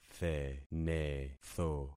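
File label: "thenetho", concatenated syllables; Affixing experiment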